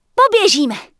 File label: Night Elf Female Standard NPC Farewell; wowpreklad; czwow